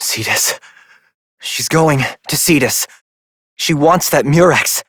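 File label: Voice, English; New War; Quarters; Operator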